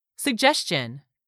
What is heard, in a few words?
"suggestion", English; 3000 words